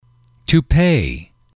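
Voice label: "to pay", English, male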